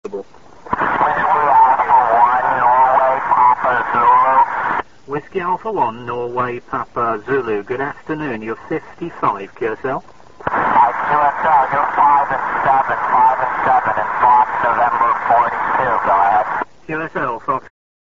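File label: amateur radio contact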